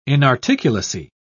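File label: "inarticulacy", British English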